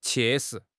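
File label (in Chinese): "切实", Chinese; HSK6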